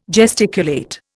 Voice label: "gesticulate", Indian